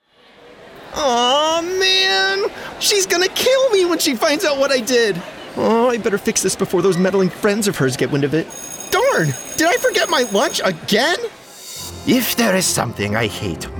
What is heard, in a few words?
Animation